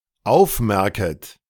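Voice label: "aufmerket", German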